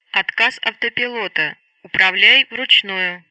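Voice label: Speech Sound Russian Common Messages; ACSFailure; Betty; DCS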